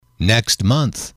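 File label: next month, male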